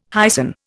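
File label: "hyson", Indian English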